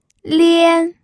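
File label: "lian", first tone, pinyin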